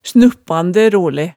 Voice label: "snuppande role", hemsedalsmaalet

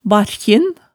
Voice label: "barkjin", hemsedals maalet tale

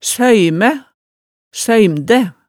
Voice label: "søymæ", hemsedalsmaalet tale

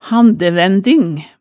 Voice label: "handævænding", hemsedalsmaalet

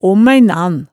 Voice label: "omæinan", Hemsedal

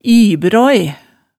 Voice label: "ibraae", hemsedalsmaalet

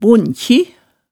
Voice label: "bonkji", hemsedals maalet tale

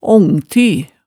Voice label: "ongty", Hemsedal

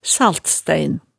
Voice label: "saltstein", hemsedalsmaalet, tale

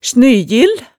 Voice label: "snyjil", hemsedalsmaalet, tale

